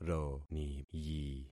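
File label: "roniyi", concatenated syllables; Affixing experiment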